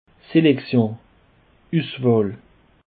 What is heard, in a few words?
"selection", Alsace